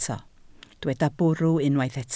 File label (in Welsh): "bwrw", Welsh